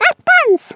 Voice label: "bummer", Swedish; Worms Armageddon

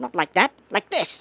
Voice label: "watch this", Stooges; Worms Armageddon

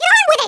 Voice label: "hurry", American; Worms Armageddon

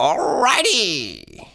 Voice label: "brilliant", Danish; Speech; Worms Armageddon; Danish-Pyrus

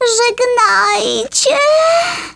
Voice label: "BYEBYE", Polish; Worms Armageddon

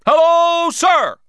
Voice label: "hello sir", Drill Sergeant; Speech; Worms Armageddon

